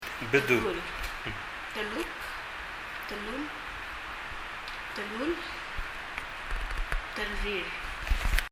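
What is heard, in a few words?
"bedul" Palauan, head; 頭・head; 身体の各部位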